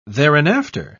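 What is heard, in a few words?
"thereinafter", British English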